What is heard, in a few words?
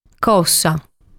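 "Cossa", Sardinian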